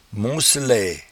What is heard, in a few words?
"moncelaie", patois jura